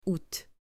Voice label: "août", French

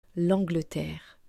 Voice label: "L'Angleterre", French

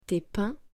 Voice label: "tes pains", French

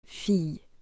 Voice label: "fille", French

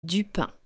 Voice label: "du pain", French

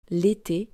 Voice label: "l'été", French; days months seasons

